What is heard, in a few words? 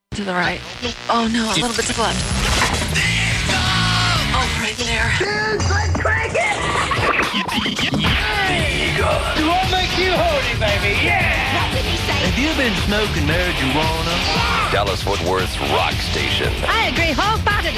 Sweeper; KEGL 97-1 The Eagle